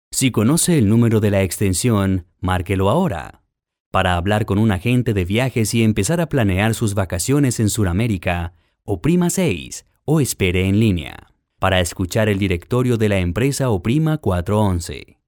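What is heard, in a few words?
Spanish Americas, male, voice over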